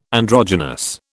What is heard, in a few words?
"androgynous", British